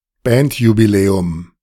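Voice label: "Bandjubiläum", German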